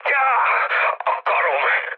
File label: damaged pilot, mood low, speech hun; War Thunder